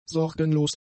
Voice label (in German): "sorgenlos", german; adjectives